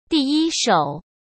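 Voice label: "第一手", Mandarin Chinese; chinese flashcards; hsk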